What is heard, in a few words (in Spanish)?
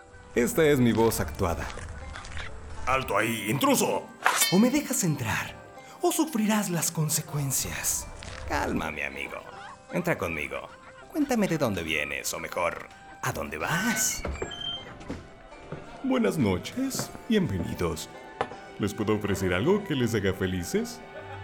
Actuada